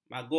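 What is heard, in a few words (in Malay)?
"magun", Malay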